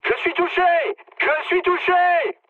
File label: aircraft destroyed mood high, speech fr; War Thunder